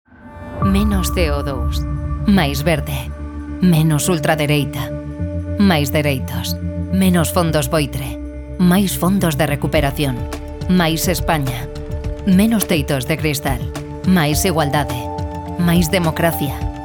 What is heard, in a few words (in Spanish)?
CUÑA DE RADIO GALLEGO; PSOE; EUROPEAS 2024